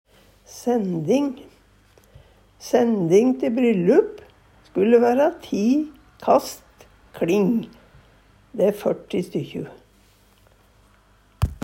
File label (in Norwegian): sending, Numedalsmål; Kan også brukast om antall fisk